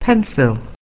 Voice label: "pencil", English